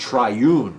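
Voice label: "triune", American English; pronunciations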